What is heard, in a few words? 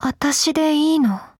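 Upgrade, Japanese; Vector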